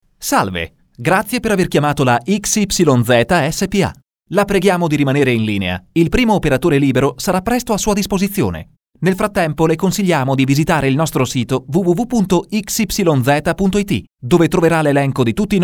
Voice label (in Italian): italiano Maschile; messaggi vocali personalizzati